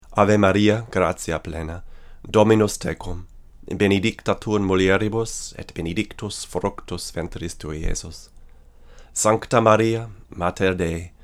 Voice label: VO, Neumann KM88; Voice English / German / Latin; cardioid